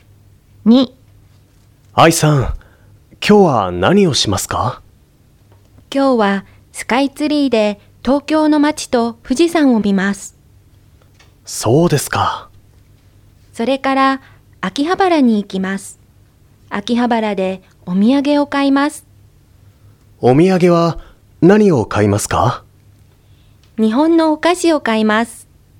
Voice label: Conversation